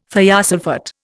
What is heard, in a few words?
"thiosulphate", Indian